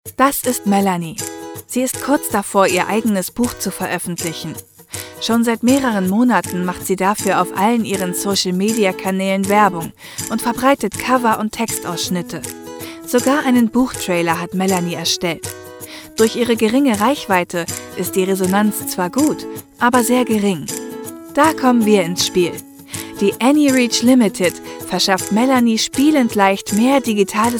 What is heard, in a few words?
Explainer